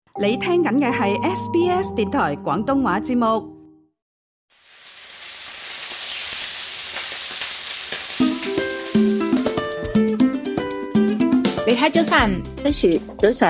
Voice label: cantonese; SBS Chinese; 【養生糕點】桂花杞子圓肉糕; gourmet express